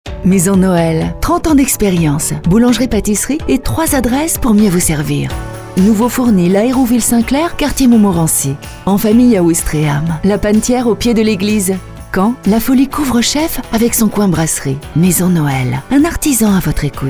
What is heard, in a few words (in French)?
spot pub radio; maison noel